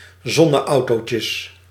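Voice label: "zonneautootjes", Dutch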